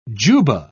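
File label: "jubbah", British English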